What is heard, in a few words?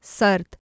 "serd", Urdu